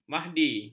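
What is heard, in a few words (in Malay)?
"mahdi", Malay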